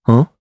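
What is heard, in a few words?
voice, Japanese; Esker; kingsraid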